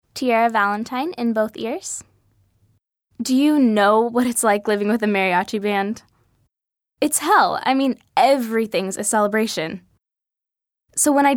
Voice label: kids commercial; Late teens/early 20's, the girl you want to hang out with.

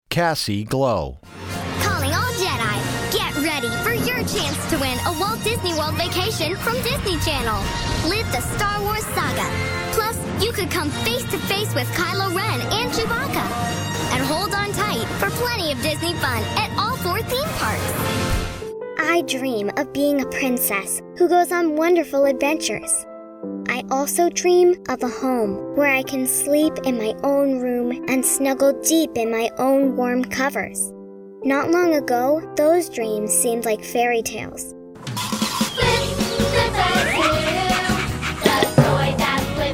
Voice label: kids commercial; Genuine tween with great range!

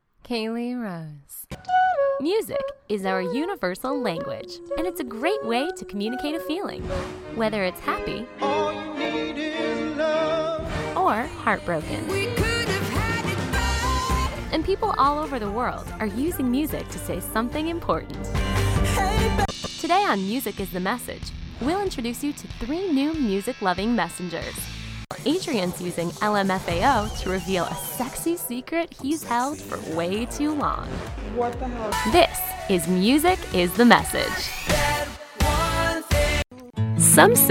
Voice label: women narration; Conversational, Fresh, and Genuine All American Girl Next Door.

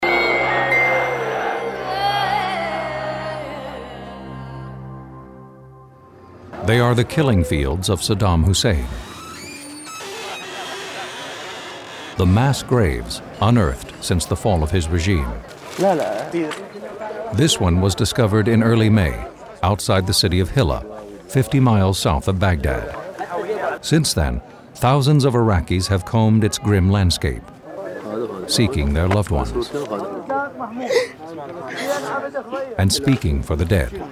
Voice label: men narration; One of the top voice talent in the business, you hear him every day on the networks and in movie theaters...C-3PO, Yoda

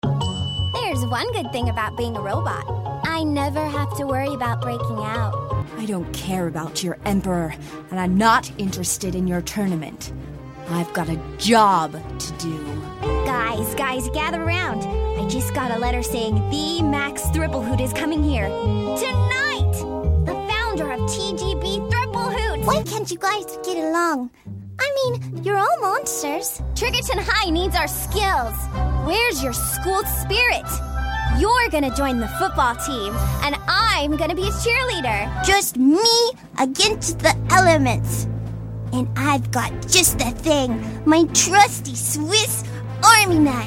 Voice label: women animation; Hip, bright, and welcoming.

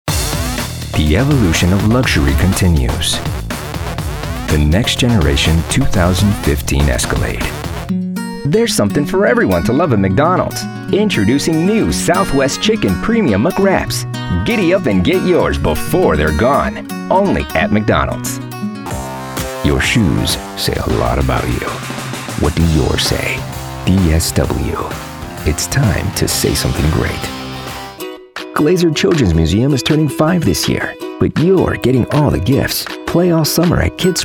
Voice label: men commercial; Friendly, with a touch luxury.

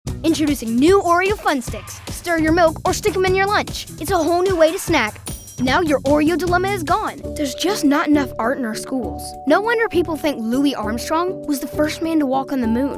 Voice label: kids commercial; Energetic, intelligent tween with texture and range, capable of making strong acting choices. Confident with improv and easy to direct.

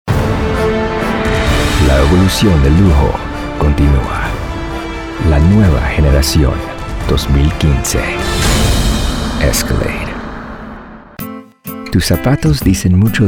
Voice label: spanish commercial; Friendly, with a touch luxury.

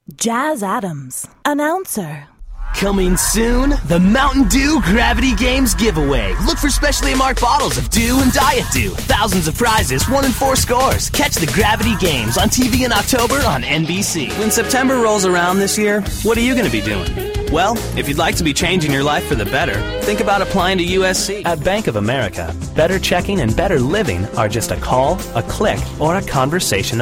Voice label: men commercial; Youthful, quirky, great with characters.

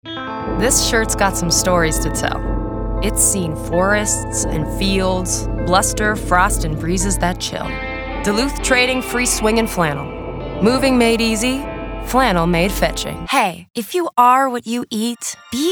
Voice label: women commercial; Confident, strong, genuine, and textured.

